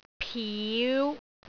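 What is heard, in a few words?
"piu5", Cantonese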